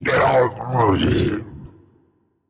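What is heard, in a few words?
Big Death voice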